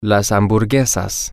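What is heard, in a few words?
the hamburgers, Spanish, male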